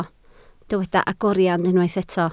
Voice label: "agoriad", Welsh